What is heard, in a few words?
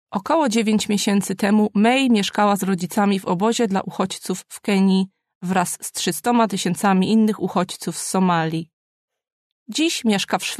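Polish, female, documentary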